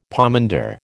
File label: "pomander", American